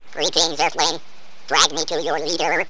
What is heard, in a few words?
alien speak